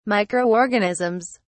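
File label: "microorganisms", British English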